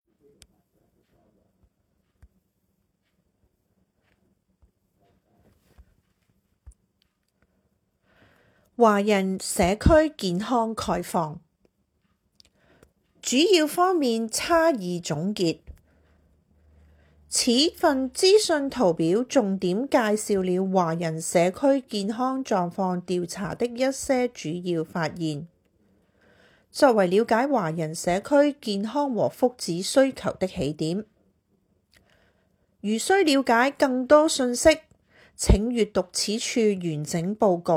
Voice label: Cantonese audio version; Chinese Health Profile infographic left column